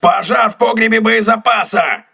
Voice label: voice message ammunition storage damaged, crew ships russian